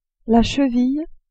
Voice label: "cheville", French; corps